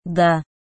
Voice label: "Da" Yes